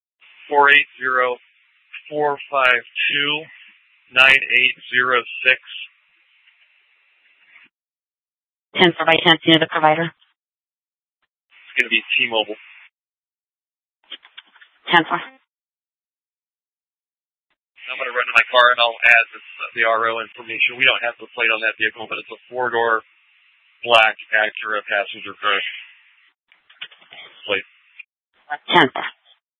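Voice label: police dispatch, Scottsdale PD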